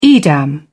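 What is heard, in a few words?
"edam", British English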